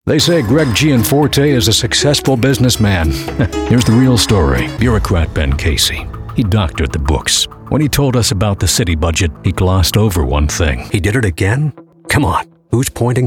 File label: Political Negative Attack